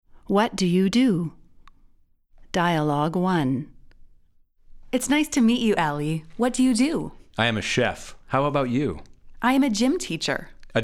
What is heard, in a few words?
Dialogue natural